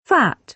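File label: "fat", British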